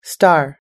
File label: "star", us english